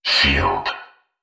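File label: "sheild", synthesized voice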